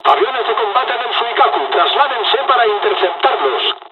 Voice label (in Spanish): dialogs spanish; War Thunder